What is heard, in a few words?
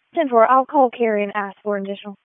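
Police Southwest District Disp, radio; Raleigh; p25; wake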